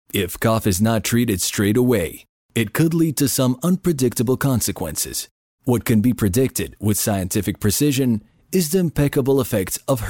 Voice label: serious, english

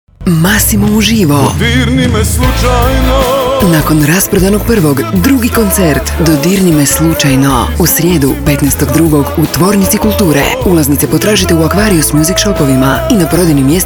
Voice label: radio commercial promo, croatian

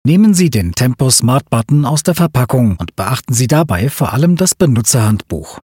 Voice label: Instructions, german

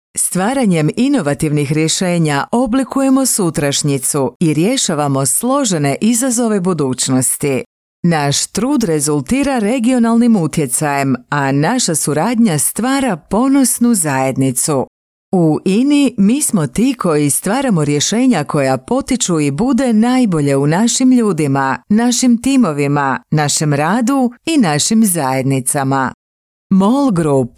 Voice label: Corporate, croatian

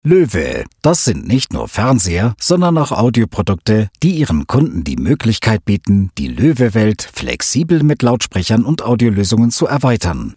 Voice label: Product Video, german

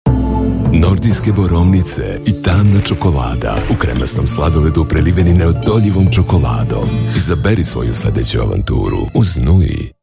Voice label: TV, serbian

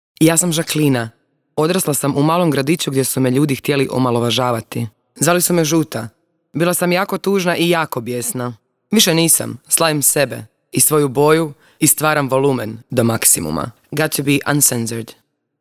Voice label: Narration, croatian

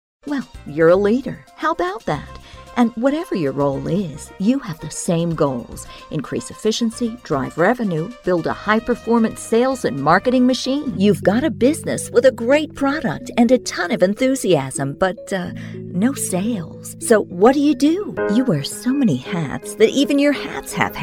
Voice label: Narration, english, USA

